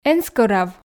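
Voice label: эн скӧрав, Komi, female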